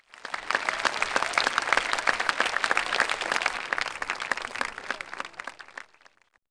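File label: "out", spoken; wesole przedszkole bolka i lolka; olimpiada letnia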